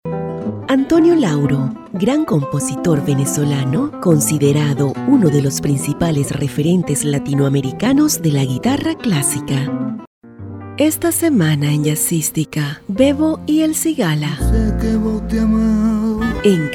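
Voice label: generico, Venezuelan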